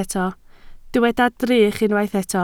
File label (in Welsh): "drych", Welsh